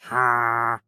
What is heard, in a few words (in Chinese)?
流浪商人否定声